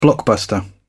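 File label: "blockbuster", British English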